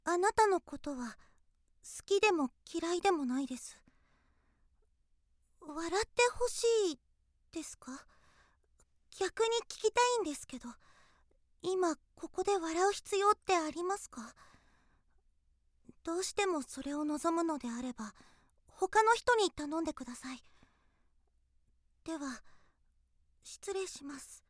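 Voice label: girl, Japanese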